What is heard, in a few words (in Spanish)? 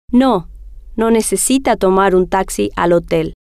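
no Necesita Tomar Taxi Hotel, spanish, female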